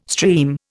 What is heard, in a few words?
"stream", Indian